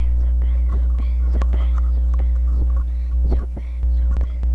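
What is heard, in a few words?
"pencil", spoken word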